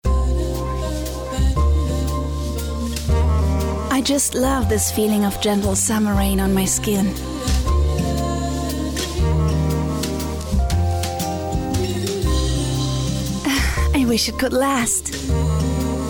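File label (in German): englisch gedankenstimme glücklich; nivea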